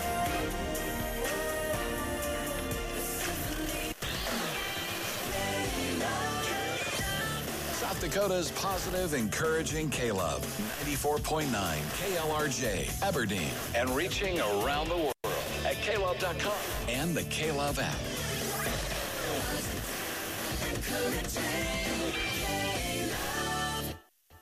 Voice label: call ES; KLRJ